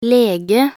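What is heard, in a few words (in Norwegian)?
"lege", Norwegian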